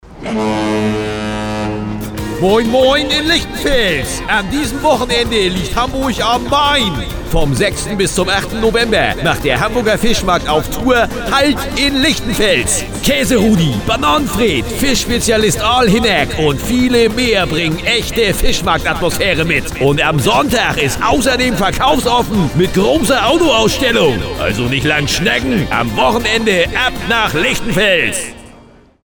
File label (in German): funkspot fischmarkt